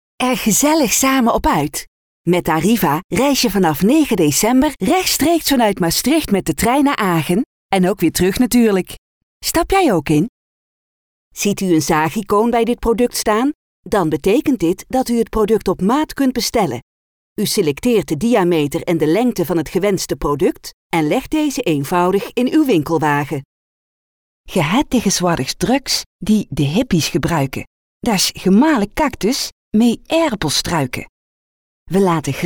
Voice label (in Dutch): zachte g